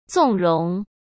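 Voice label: "纵容", Mandarin Chinese; chinese flashcards; hsk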